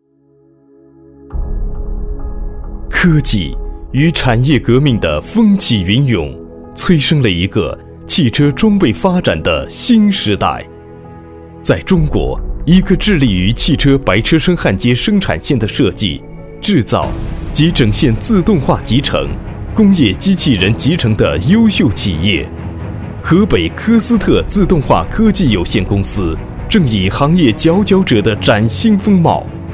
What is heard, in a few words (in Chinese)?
大气专题企业